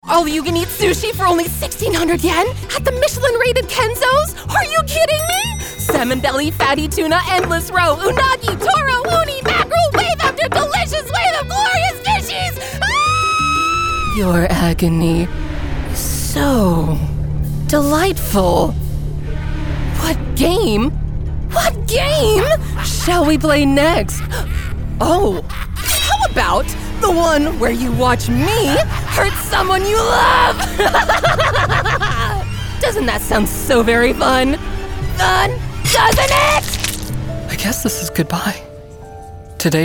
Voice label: Anime Demo Reel